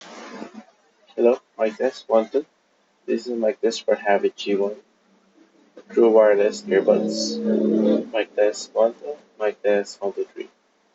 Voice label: Havit G1 Mic Test PC

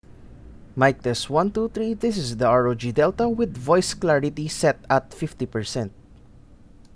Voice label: Voice Clarity; Delta

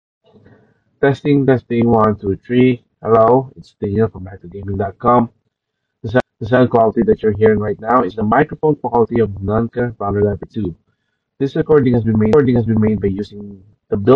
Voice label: NANK Diver 2 Microphone Recording

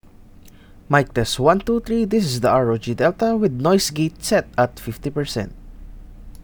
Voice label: Noise Gate; Delta

